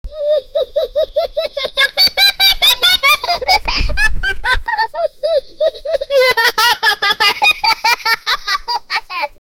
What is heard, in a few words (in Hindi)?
kuch child mzak bna kr, voices